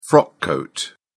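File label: "frock coat", British English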